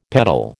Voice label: "peddle", American